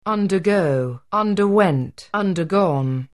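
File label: "undergo", English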